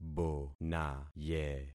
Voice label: "bonaye", concat syllables; Affixing experiment

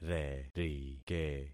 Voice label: "rerike", concat syllables; Affixing experiment

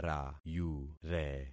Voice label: "rayure", concat syllables; Affixing experiment

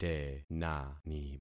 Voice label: "tenani", concat syllables; Affixing experiment

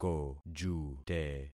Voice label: "kojute", concat syllables; Affixing experiment

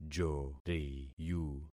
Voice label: "joriyu", concat syllables; Affixing experiment

